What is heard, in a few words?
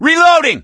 reload intense, gambler, survivor voice; source engine; left 4 dead 2